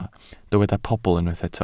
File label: "pobl", Welsh